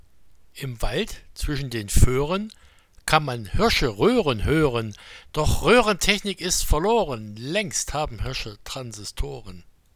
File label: Corsair Microphone